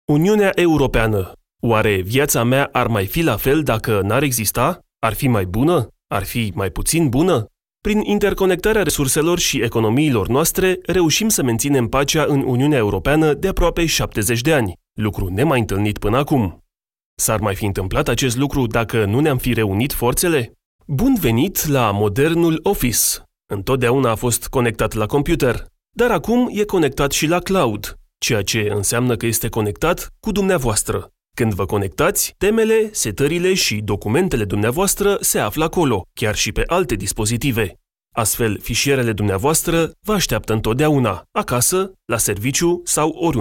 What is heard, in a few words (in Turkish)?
Romence Erkek, seslendirme